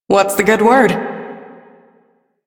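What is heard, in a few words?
Female Brisk; Warframe; Duviri; Duviri Ambient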